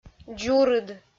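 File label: "дзурыд", Komi, female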